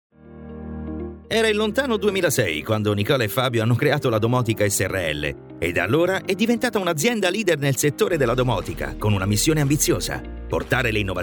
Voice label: male italian voice over talent upbeat

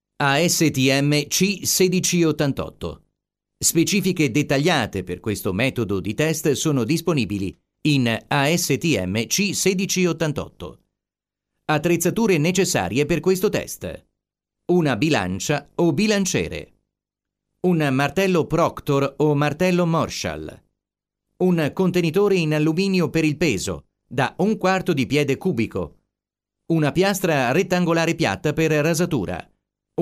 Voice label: male italian voice over talent narrative

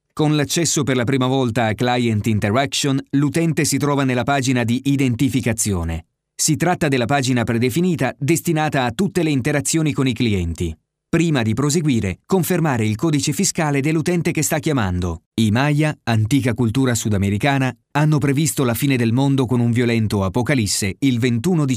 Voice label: male italian voice over talent narrative

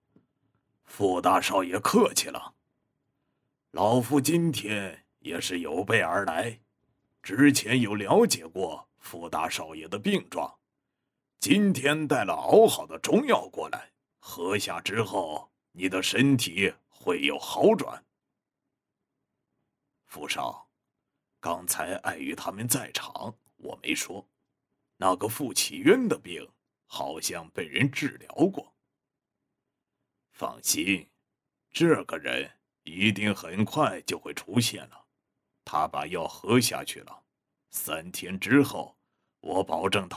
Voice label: male chinese voice over character; mandarin chinese

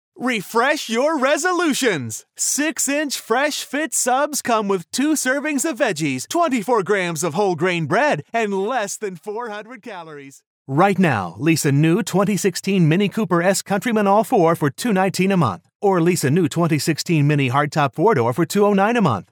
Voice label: male american voice over sales, english american

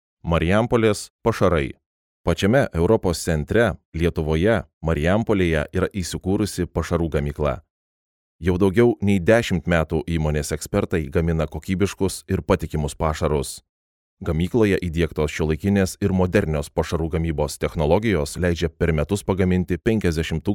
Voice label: male lithuanian voice over talent narrative

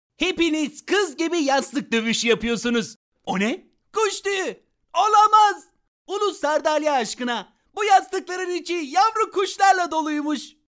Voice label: male turkish voice over talent character

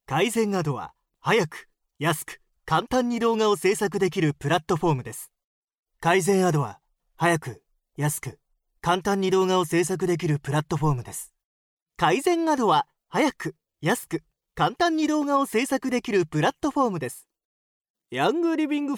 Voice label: male japanese voice over talent upbeat

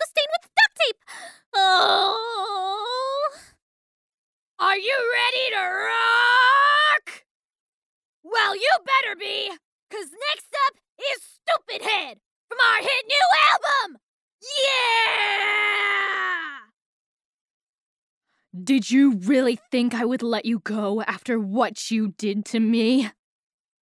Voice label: female canadian voice over character

